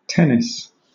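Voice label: "tennis", British English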